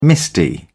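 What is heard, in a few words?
"misty", British English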